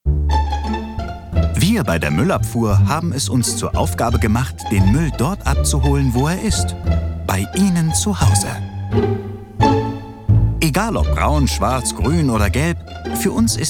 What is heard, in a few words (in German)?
Werbung Muellabfuhr; LOFT VOICES